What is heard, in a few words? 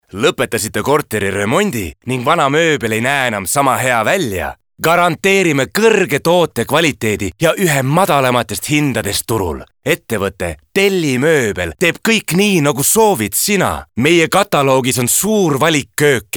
EST male Positive